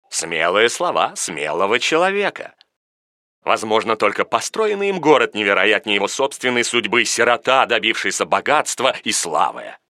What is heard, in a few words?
narrator